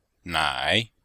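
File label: "nai3", Mandarin Chinese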